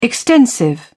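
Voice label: "extensive", British English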